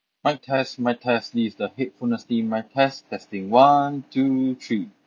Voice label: Quiet; Taotronics SoundLiberty 88